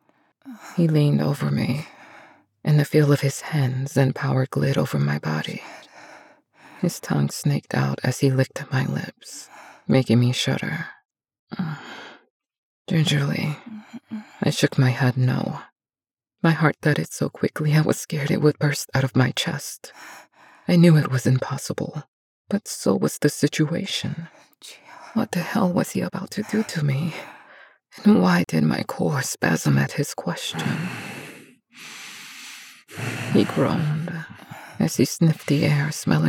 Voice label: MF Duet 1st person narration Paranormal